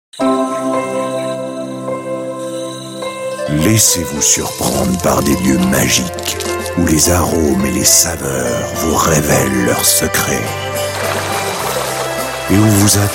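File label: French, Commercial; GoLocalise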